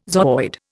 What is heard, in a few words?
"zooid", Indian